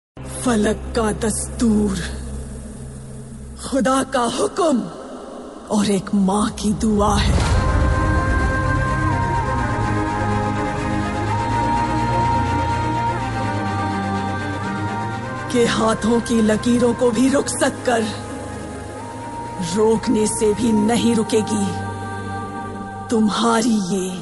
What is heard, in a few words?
Dialogue Ringtone; Kgf 2